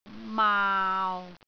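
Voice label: "maau6", Cantonese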